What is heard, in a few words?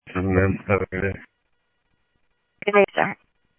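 Lakes Sheriff Lake Patrol, scanner audio trunk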